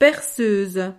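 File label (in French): "perceuse", French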